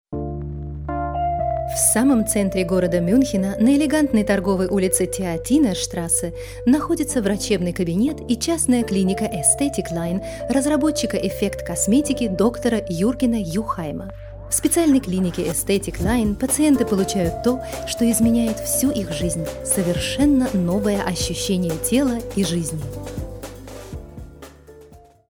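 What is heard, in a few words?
Russian, female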